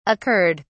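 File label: "occurred", British English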